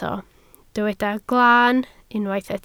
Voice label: "glaan", Welsh

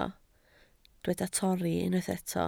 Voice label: "torri", Welsh

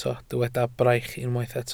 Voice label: "braich", Welsh

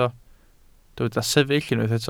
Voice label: "sefyll", welsh

